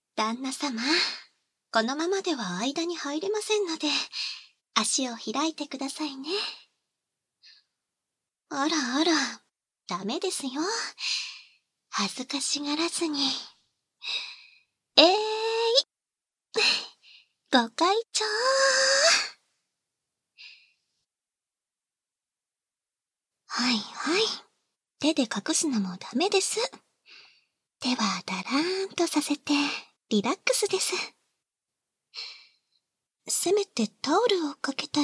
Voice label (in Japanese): 足オイルマッサージ（簡易モノラル編集 効果音なし）